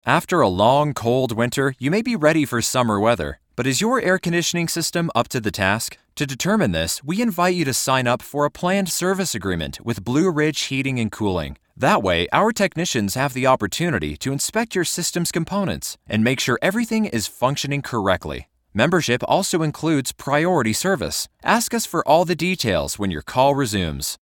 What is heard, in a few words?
On Hold; voice